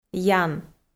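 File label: "ян", Komi, female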